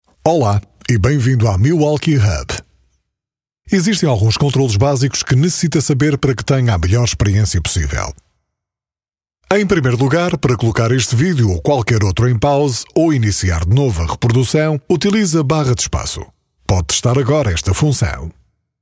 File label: portuguese audiobooks